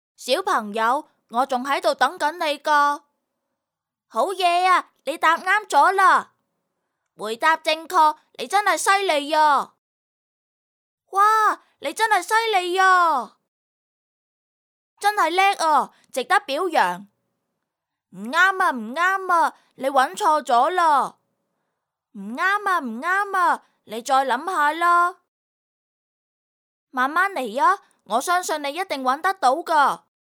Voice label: Acting